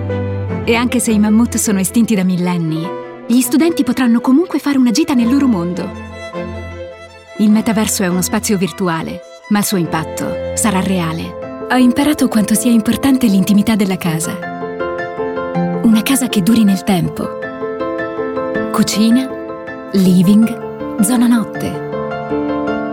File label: ITALIAN VOICES Recent Commercials